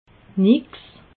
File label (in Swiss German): "nix", alsace